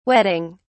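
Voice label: "whetting", British English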